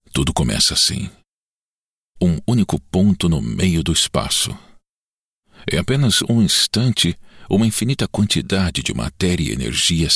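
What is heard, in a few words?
Italian Corporate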